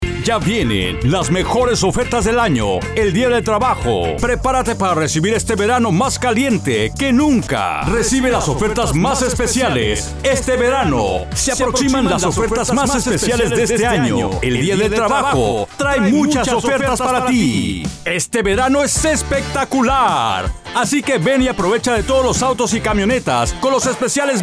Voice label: Male